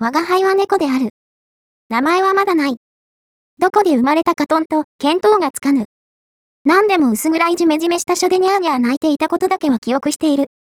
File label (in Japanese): text yomiage